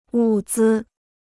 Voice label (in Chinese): "物资", Chinese